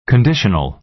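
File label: "conditional", American English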